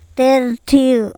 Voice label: "tErTHiu", andamanese

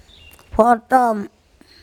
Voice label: "pHoTOm", andamanese; fish

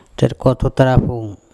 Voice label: "THerkOthotarapHong", Andamanese; my nostril

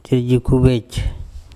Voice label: THerjukhubEc my moustache, andamanese

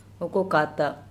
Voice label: okokata a cut piece, Andamanese

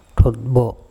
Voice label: "ThotbO", Andamanese; my back

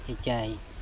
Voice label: "ecae" dirty, andamanese

